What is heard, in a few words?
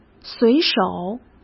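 "随手", Mandarin Chinese; hsk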